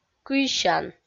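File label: "кыйсян" Komi, female; kpv audio dict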